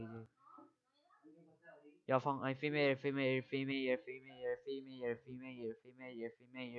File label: female